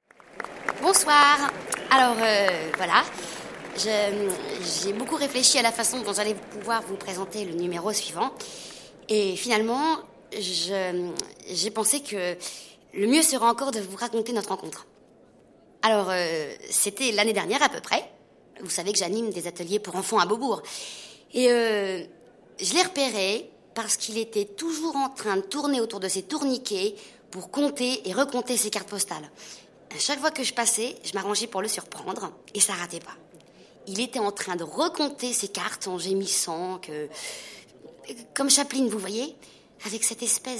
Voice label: comédie; ensemble cest tout

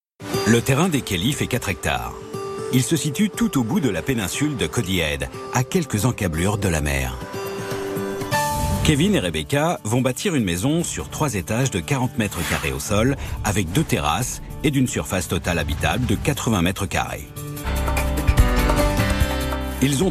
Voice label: Voix Narrative; RMC Découverte; Construction hors limite

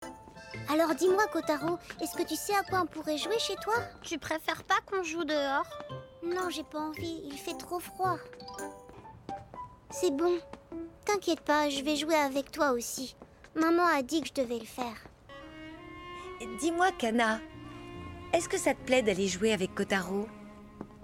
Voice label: Voix petite fille serie animee; Kana; Kotaro

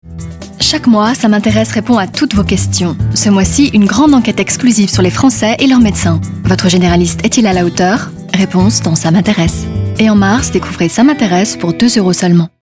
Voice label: Journalistique; Ça m'intéresse

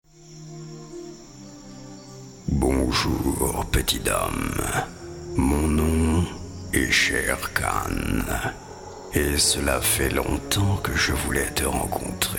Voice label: voix grave; shere khan

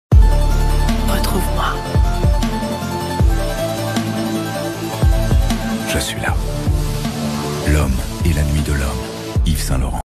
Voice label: Publicite; YVES SAINT LAURENT

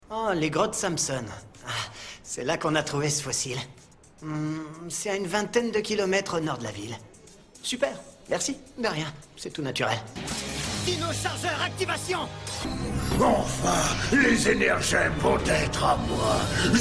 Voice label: Doublage; Power Rangers Chase et Sledge

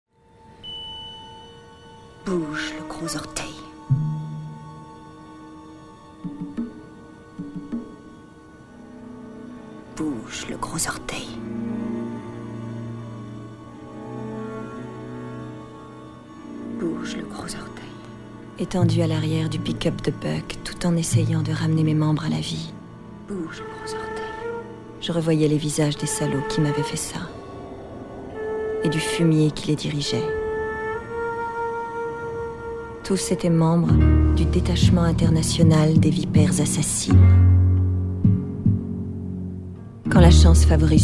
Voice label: doublage; Uma Thurmann; KILL BILL 1

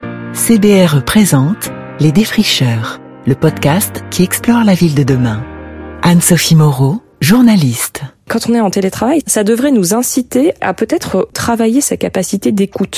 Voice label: Podcast chaleureux élégant; CBRE

